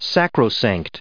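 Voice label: "sacrosanct", English, us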